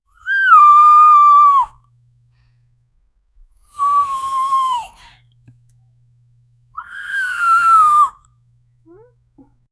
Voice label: year old female soft; neck